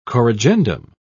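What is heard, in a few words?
"corrigendum", British English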